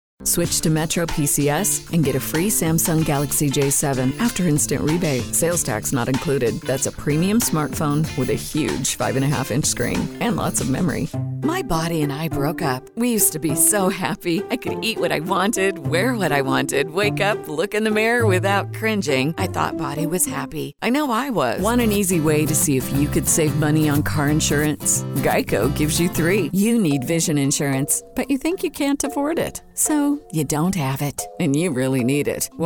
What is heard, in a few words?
Commercial Natural Conversational